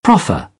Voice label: "proffer", British; ɚ - əɹ; English